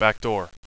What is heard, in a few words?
back door, radio bot; cstrike